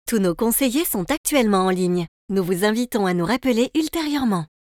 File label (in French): Message prise de conges, voix off repondeur ivr